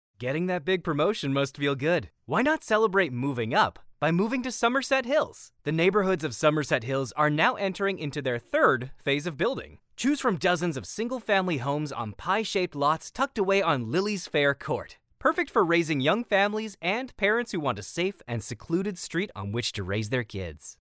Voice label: male american voice over sales, english american